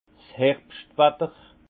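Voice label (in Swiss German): "herbschtwatter", Alsace Haut Rhin; vin; temps d’automne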